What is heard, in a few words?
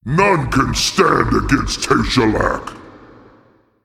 Male Titan Play